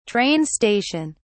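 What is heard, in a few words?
"train station", British English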